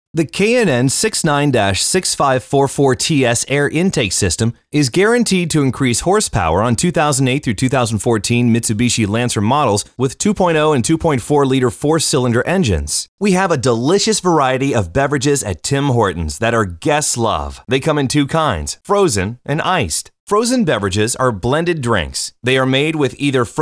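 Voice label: ELEARNING, American English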